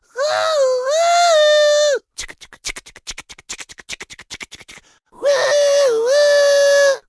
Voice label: Gnome Male Choo Choo